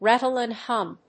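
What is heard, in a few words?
"Rattle and Hum", English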